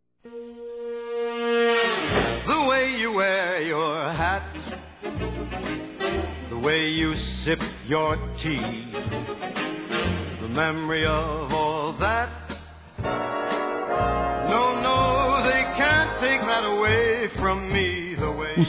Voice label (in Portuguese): Bodas de Ouro (50 Anos) Masc, Telemensagens; Bodas e Casamento